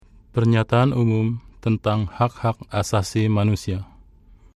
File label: Indonesian title